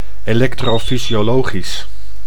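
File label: "electrofysiologisch", Dutch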